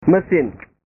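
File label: "mesin", Indonesian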